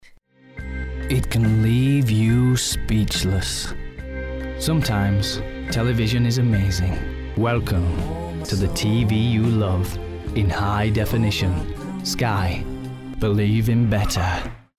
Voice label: american accent, english male voices american accent